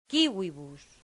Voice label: "civibus", Latin; santillana